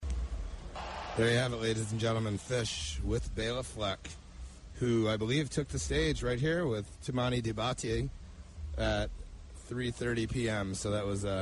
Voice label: radio banter; Bonnaroo